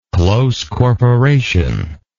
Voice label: "close corporation", English